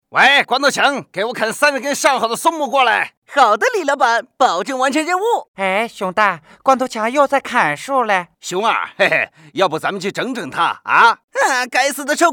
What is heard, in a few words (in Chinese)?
男 动画角色模仿; 熊出没; 光头强熊大熊二